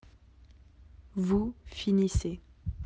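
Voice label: "finir", French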